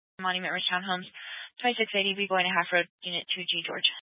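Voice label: Police Dispatch, Scanner audio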